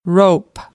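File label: "rope", American English; AmericanBritish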